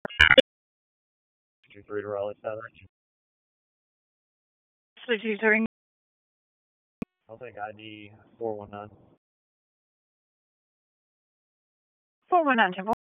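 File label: Police Northeast District Dispatch, p25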